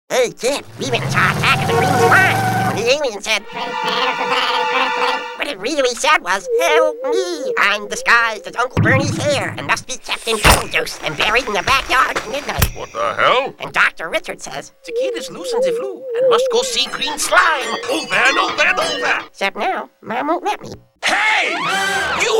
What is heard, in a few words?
Animation